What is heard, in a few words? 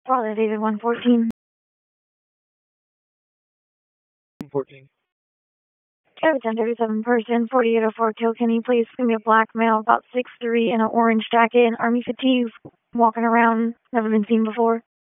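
Police Southwest District Dispatch, p25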